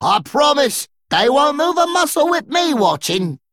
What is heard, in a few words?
Male Dredger After Freezing